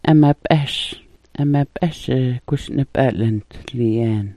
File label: "amap'as", Smalgyax